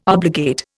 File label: "obligate", Indian